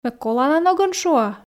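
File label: Komi sentences, female; ме колана ногӧн шуа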